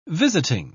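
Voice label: "visiting", English, British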